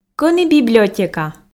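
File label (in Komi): кӧні библиотека, Komi, female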